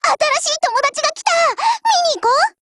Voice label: BUILD OVER JP; MP446